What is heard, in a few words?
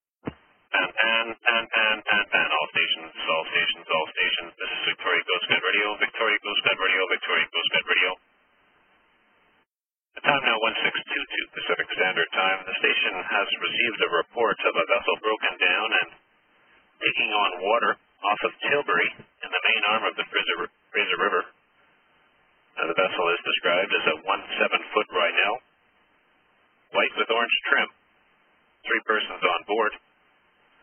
Pan Pan call